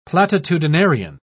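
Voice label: "platitudinarian", British English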